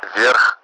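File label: Russian speech, Mi-8MT; above; DCS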